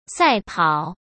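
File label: "赛跑", Mandarin Chinese; hsk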